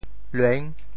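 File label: "lueng6", Teochew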